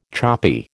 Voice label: "choppy", American

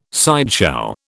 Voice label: "sideshow", British

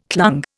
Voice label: "clung", Indian

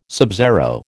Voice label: "subzero", American

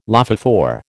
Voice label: "lophophore", American

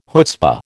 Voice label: "chutzpah", American

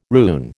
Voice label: "rune", American

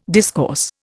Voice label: "discourse", Indian

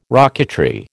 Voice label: "rocketry", American

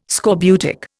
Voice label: "scorbutic", Indian

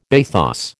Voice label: "bathos", American

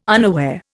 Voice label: "unaware", Indian

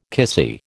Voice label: "kissy", American

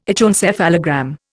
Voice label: "echoencephalogram", Indian

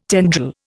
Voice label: "tendril", Indian

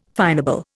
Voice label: "finable", Indian

